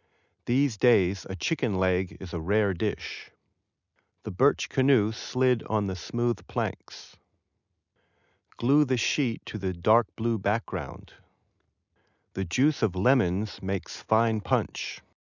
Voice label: male